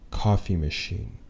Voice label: "coffee machine", anglais